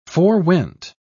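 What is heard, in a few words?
"forewent", British English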